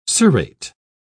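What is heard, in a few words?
"cirrate", British English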